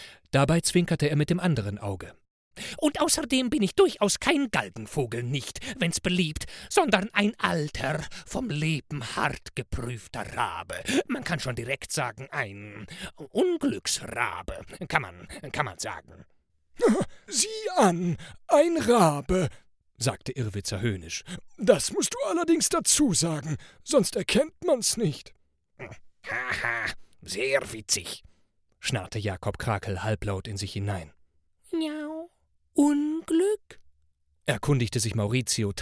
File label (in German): Hörbuch Kinderbuch